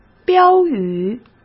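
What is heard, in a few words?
"标语", Mandarin Chinese; hsk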